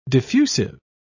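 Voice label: "diffusive", en, British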